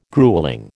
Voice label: "gruelling", American